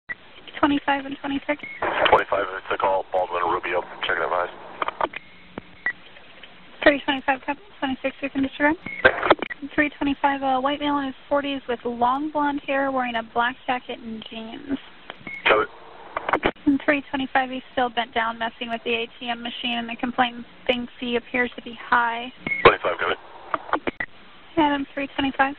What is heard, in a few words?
Radio Traffic time compressed